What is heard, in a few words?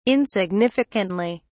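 "insignificantly", British English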